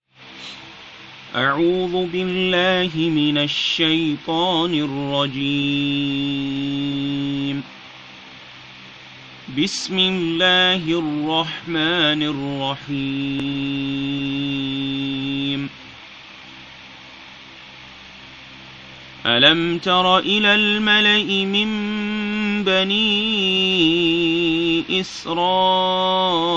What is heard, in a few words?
Recitation